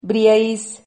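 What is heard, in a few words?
"briais", Spanish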